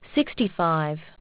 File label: aus, aussie prompts